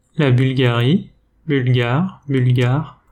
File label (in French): "bulgare", French